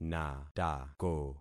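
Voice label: "natako", concatenated syllables; Affixing experiment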